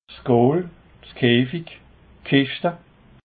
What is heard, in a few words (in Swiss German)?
"keafig", alsace